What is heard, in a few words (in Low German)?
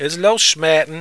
is losschmaeten, plattdeutsch